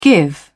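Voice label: "give out", English, British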